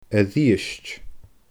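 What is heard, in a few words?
"a-rithist", Scottish Gaelic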